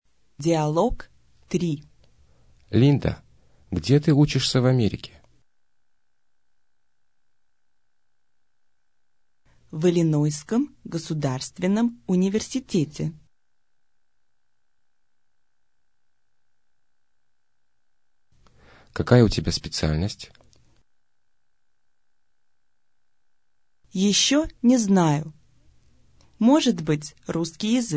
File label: dialog, russian